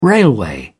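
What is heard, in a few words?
"railway", British English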